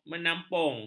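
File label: "menampung", Malay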